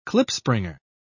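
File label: "klipspringer", British English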